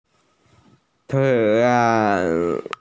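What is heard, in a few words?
"tian2", Mandarin Chinese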